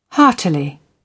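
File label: "heartily", British English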